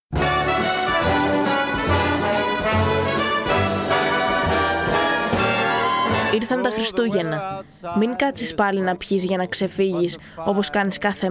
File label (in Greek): spot xmas; ευχές από το κεθεα στροφη